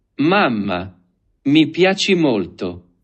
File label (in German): Italienisch; Mama, i han di gera